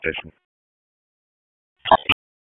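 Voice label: Police Spec Ops Tac, radio; Wake; p25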